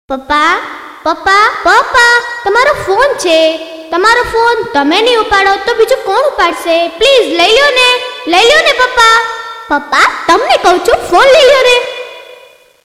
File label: gujrati girl phone; children